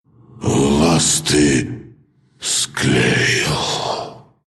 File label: death, ru; Dota 2